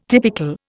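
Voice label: "typical", Indian English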